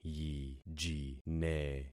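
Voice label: "yijine", concatenated syllables; Affixing experiment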